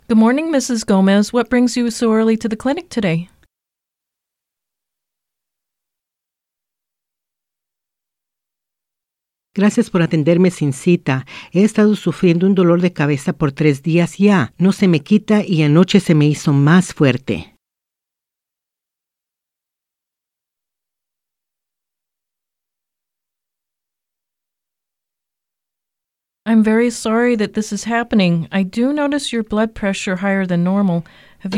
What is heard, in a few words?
Practice Dialogue Medical Assistant EN SP; Medical Assistant (Asistente Médico); Skits for Medical Interpreting Practice; Valley Community Interpreters